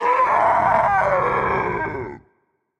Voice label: vox attack m; smite; bakasura

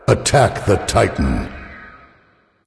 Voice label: vox attack m; smite; khepri

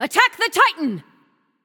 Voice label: vox attack m; smite; nuwa; voicepack

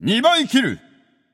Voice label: alert notify kill, japanese; caster

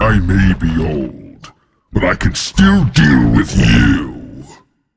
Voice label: taunt, voice; smite; voicepack; tyr

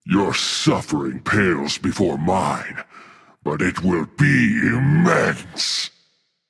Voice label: taunt, voice; smite; voicepack; tyr

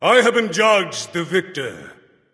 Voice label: kill streak, voicepack; smite; thoth

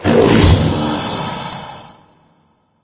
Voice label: Death Voice; smite; kumbhakarna

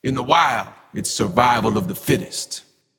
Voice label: taunt directed; cernunnos; smite; olorun

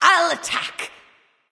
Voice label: vox self attack; smite; arachne

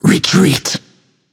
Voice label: vox retreat; smite; arachne

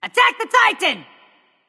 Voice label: vox attack m; smite; cupid

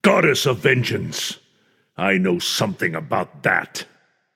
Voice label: taunt directed nemesis; smite; zeus

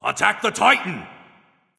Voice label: vox attack m; smite

